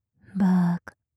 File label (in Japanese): "バーカ", 極近, 左; マゾオスのあなたに敗北射精の快楽を叩き込む音声